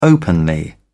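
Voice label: "openly", British English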